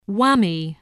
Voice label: "weary", spelling